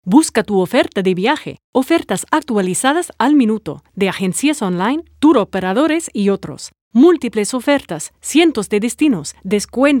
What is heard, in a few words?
spanish